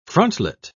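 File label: "frontlet", British English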